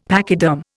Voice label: "pachyderm", Indian; Dictionary